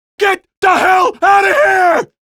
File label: get hell out, male; Half-Life 2